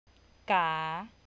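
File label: "ก๋า", Thai, rising tone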